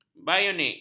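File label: "bionik", Malay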